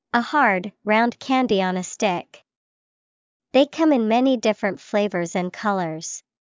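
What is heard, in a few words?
lollipop, English vocabulary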